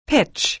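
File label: "pitch", American English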